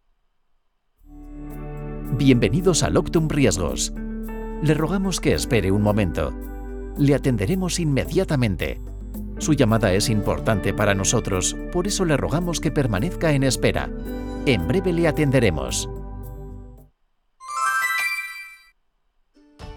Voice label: spanish ivr, spanish voice actors